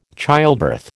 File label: "childbirth", American